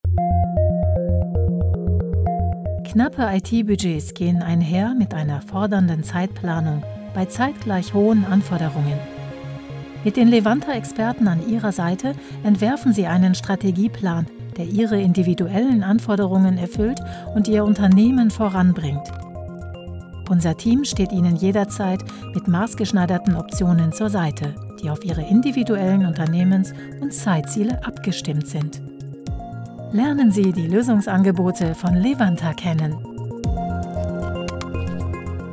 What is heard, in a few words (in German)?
werbung